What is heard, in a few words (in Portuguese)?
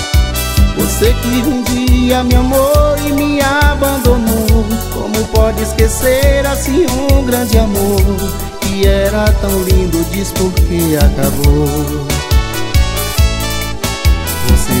mensagem pelo locutor